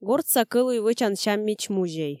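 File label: "гортса кӧлуй вӧчан сяммич вӧчан музей", Komi, female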